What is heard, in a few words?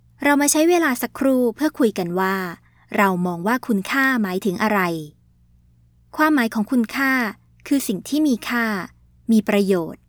thai corporate training module